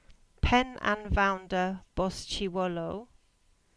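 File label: "Bosullow Lane End" Cornish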